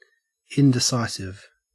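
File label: "indecisive", Australian English; pronunciations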